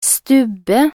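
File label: "stubbe", Norwegian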